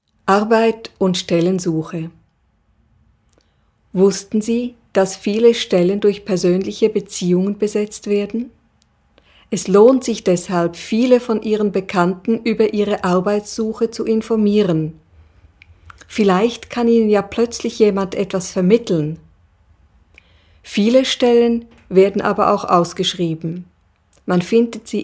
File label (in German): deutsch